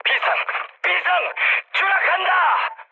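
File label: Korean aircraft destroyed mood medium; War Thunder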